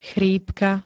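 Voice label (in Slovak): "chrípka", Slovak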